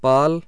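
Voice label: voiceless unaspirated bilabial, hindi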